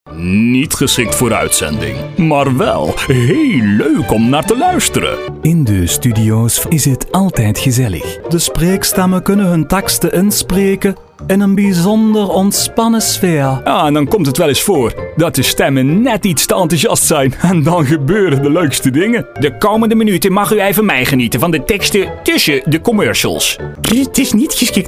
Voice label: dialects accents